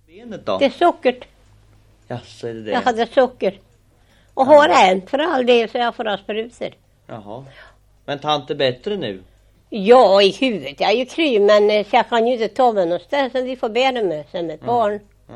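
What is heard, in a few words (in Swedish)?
Oppeby Östergötland, dialekter; Folkminnen; Svenska sagor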